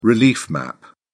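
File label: "relief map", British English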